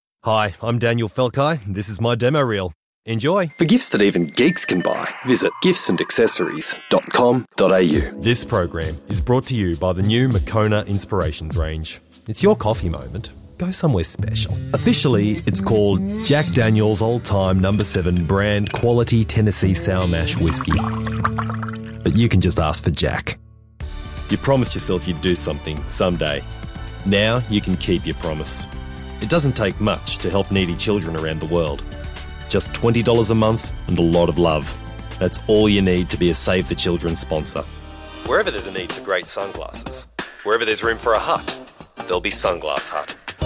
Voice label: Voice Over